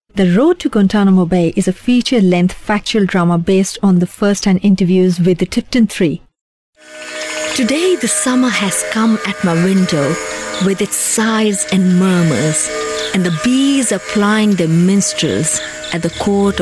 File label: female urdu voice over english